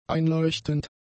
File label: "einleuchtend", German; adjectives